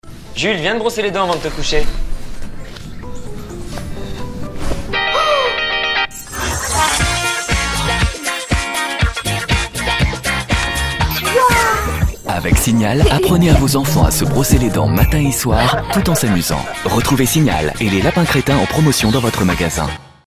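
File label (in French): chaleureux, Comédien voix off, publicité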